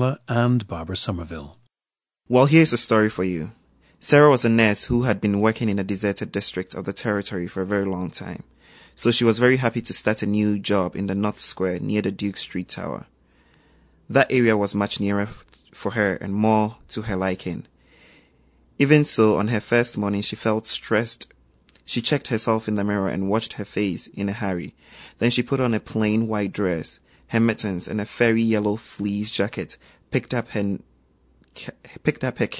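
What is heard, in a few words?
ghana, International Dialects of English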